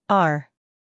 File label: "r", US; sounds-of-american-english; everyone-can-use-english